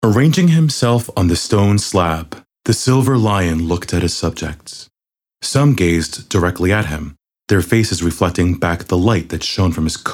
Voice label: audiobook